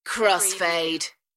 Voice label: "Crossfade", UK female; beanstalk audio

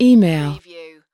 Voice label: "email", US female; lynne publishing

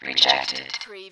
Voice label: Robot voice, "rejected"

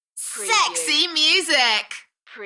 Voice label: UK female Enthusiastic; Sexy music; beanstalk audio

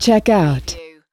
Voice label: US female checkout; lynne publishing

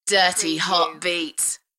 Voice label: UK female Dirty hot beats; beanstalk audio

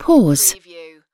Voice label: "pause", UK female; playcontrols; lynne publishing

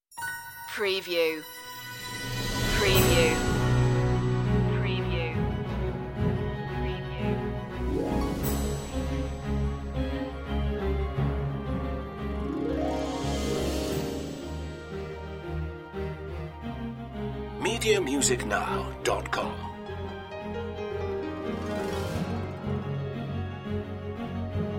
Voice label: news voiceover loop

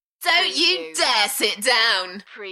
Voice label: UK female, Enthusiastic; beanstalk audio

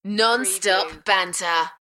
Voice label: UK female; Non-stop banter; beanstalk audio

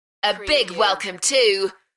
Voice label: UK female A big welcome to; beanstalk audio

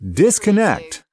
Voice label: US male "disconnect"; lynne publishing

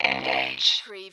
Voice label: Robot voice, engage; lynne publishing

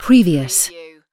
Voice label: "previous", UK female; playcontrols; lynne publishing

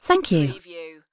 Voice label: UK female "thank you"; lynne publishing

